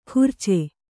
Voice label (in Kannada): "ಕೂರ್ಚೆ", Kannada